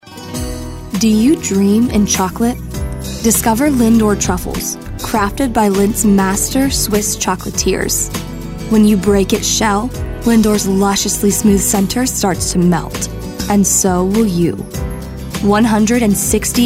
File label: soft spoken